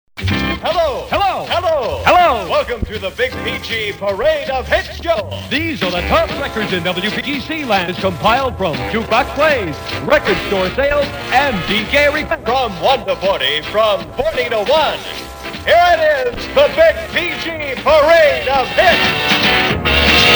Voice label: sweeper show opener; parade of hits